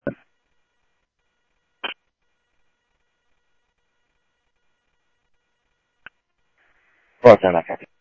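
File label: police radio dispatch, Scottsdale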